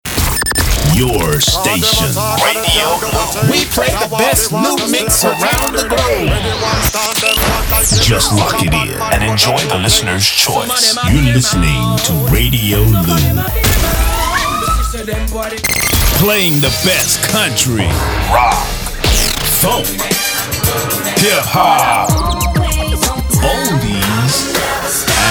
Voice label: sweeper sampler